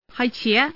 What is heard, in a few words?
woman; mahjong paohuzi; youxian